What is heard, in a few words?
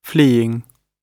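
"fleeing", British English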